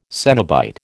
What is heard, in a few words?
"cenobite", American